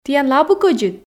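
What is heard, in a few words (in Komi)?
"тiянлы абу кӧдзыд", Komi, female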